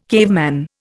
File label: "caveman", Indian